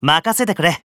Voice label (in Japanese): VO jp